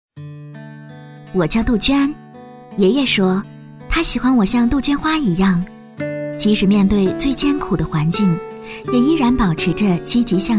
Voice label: 人物旁白 年轻女孩; 国语女声配音

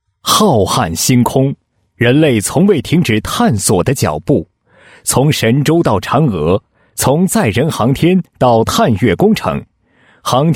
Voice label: 男 解说词, 配音; 以车为媒搭建电商平台

